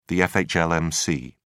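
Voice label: "fhlmc", English, British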